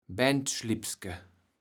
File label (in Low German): "Bendschlipske", kaevels platt